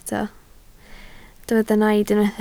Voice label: "naid", Welsh